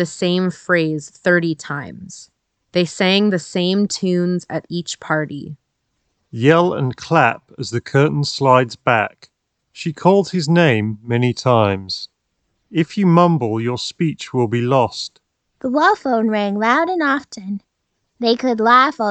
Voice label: mic ideal; focal azurys

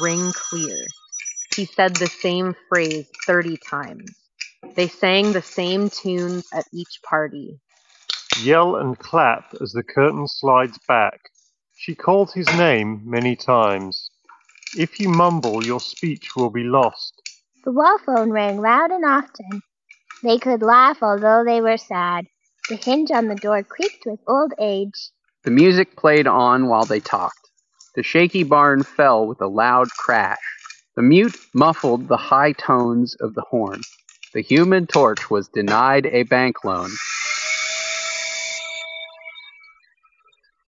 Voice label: Office microphone sample; JBL Tune230NC TWS

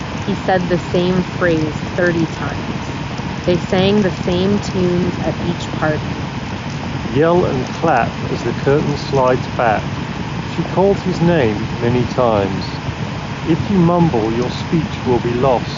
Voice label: Jabra Elite 7 Pro Wind microphone sample

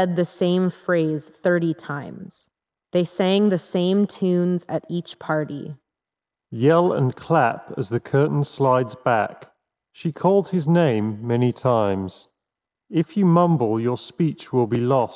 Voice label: Reverb microphone sample; Razer Barracuda X Chroma

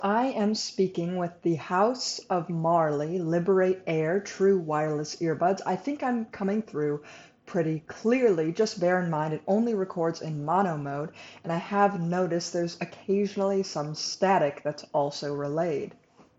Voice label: House of Marley Liberate Air microphone

